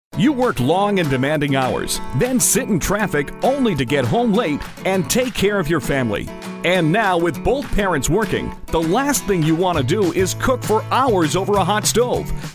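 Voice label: Informercial Direct Response, voiceover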